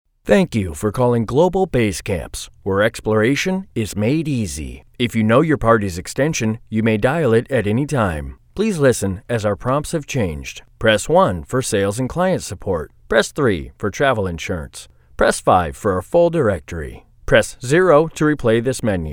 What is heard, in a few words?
audiobook ON HOLD